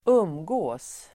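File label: "umgås", Swedish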